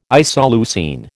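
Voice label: "isoleucine", American English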